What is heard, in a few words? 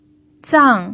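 "zang4", Mandarin Chinese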